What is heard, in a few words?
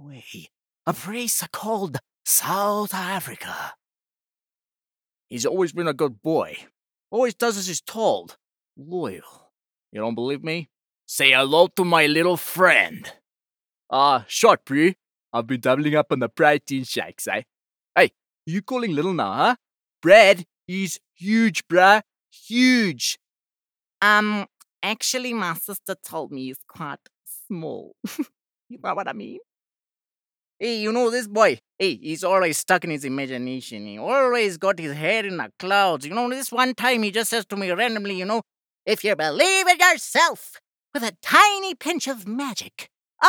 Character, Voice-over